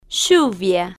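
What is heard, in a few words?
"lluvia", slow, Spanish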